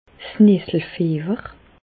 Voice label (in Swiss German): "nesselfiewer", Bas Rhin; medecine; urticaire